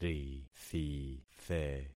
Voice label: "rithithe", concat syllables; Affixing experiment

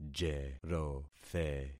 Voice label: "jerothe", concat syllables; Affixing experiment

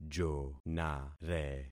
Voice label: "jonare", concat syllables; Affixing experiment

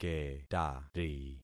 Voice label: "ketari", concat syllables; Affixing experiment

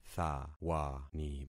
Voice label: "thawani", concat syllables; Affixing experiment

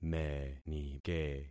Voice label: "menike", concat syllables; Affixing experiment

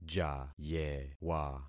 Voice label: "jayewa", concat syllables; Affixing experiment

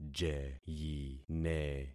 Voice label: "jeyine", concat syllables; Affixing experiment

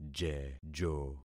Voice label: "jejo", concat syllables; Affixing experiment

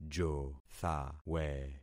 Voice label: "jothawe", concat syllables; Affixing experiment

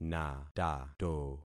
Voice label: "natato", concat syllables; Affixing experiment

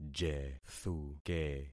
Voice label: "jethuke", concat syllables; Affixing experiment

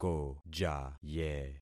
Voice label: "kojaye", concat syllables; Affixing experiment

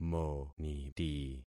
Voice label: "moniti", concat syllables; Affixing experiment

